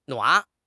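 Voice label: "nuan3", Chinese; HSK4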